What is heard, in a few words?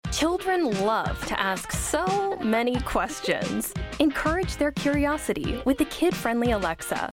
Millennial Mom